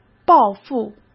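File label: "报复", Mandarin Chinese; chinese flashcards; hsk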